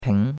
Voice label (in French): "ping2", chinois; Cours de Chinois